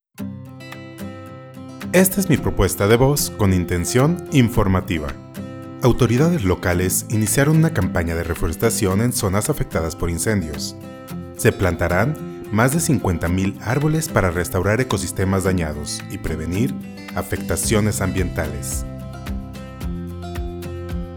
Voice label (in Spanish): Informativa Musicalizado; locutor